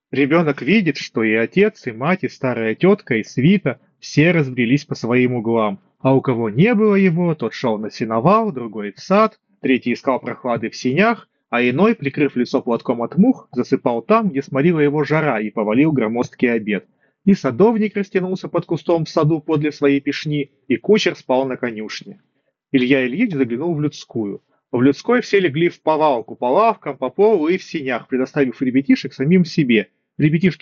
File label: Mic; oneplus nord buds 4